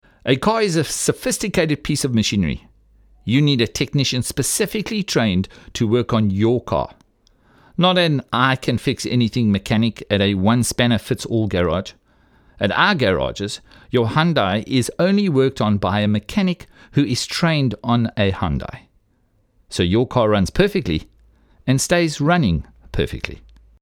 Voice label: soft sell